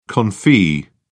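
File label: "confit", British English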